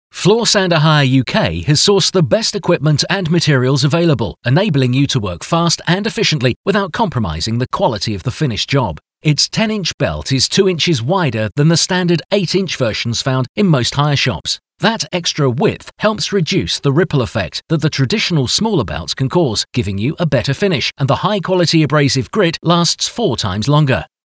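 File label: English, Great Britain, male, multimedia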